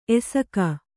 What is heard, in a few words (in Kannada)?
"ಎಸಕ", Kannada